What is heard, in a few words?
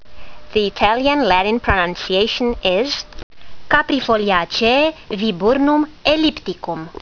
latin; Viburnum ellipticum